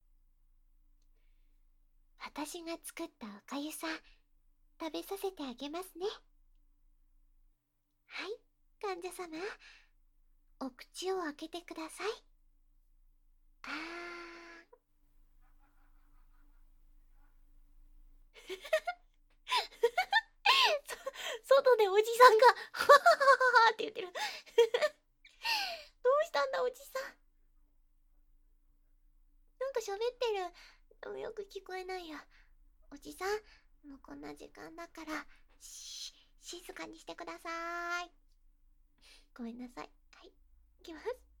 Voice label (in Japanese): おじさん NG シーン